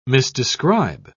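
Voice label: "misdescribe", British English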